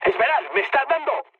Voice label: aircraft damaged, mood med, speech; War Thunder